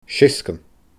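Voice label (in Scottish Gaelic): "seasgan", Scottish Gaelic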